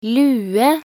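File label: "lue", Norwegian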